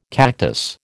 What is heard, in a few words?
"cactus", American